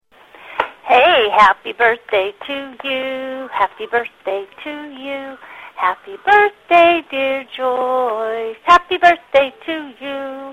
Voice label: wishing Happy Birthday, answering machine messages